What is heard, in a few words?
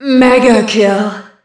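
"megakill", female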